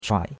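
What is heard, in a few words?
"chuai3", Chinese; Cours-de-Chinois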